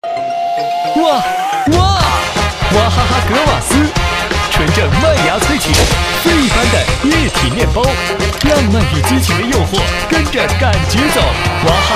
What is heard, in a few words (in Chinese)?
男国 广告 品牌形象 活力; 娃哈哈格瓦斯